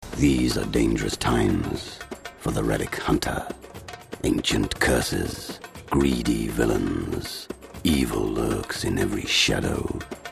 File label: VERY DEEP RUGGED; RELIC HUNTER; BURTONESQUE